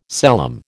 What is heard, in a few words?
"celom", American